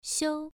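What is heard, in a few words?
"xiu" Mandarin Chinese, first tone, pronunciation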